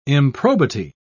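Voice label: "improbity", British English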